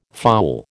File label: "fowl", American